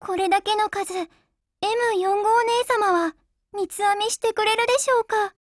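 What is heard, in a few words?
COMBINE Japanese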